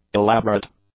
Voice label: "elaborate", English; adjectives